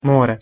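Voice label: "more" Bosnian, male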